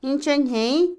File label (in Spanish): fácil, Otomí, mujer; INALI